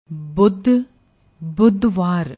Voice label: "buddh", Punjabi